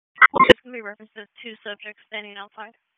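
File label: Raleigh Police Southeast District Dispatch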